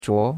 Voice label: "zhuo2", chinois; Cours de Chinois

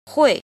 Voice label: "jin", Chinese

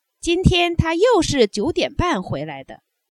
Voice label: adverb, Chinese

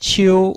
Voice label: "qiu1", Chinese

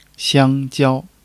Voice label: "xiang1 jiao1", Chinese

